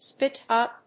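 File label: "spit-up", English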